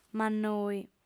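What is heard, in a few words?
"mannoi", female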